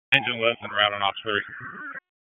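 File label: FIRE OPS, radio; wake; p25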